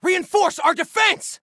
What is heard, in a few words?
Defend Reinforce, Soldier Male